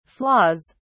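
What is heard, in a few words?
"flaws", British English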